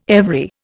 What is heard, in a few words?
"every", Indian